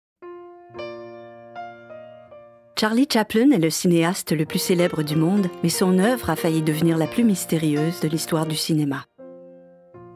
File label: Narration